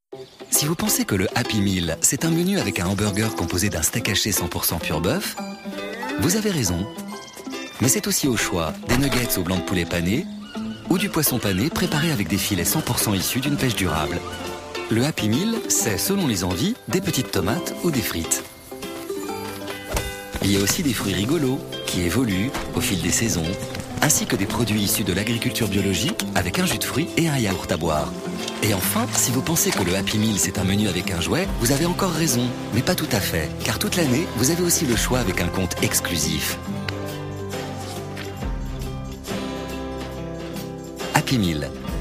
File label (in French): chaleureux complice; voix off; Happy Meal